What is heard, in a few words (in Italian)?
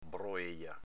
"broeja", dialetto veneto